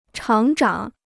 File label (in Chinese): "厂长", Chinese